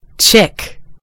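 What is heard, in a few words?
"chick", American English; gcompris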